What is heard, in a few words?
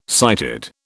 "sighted", British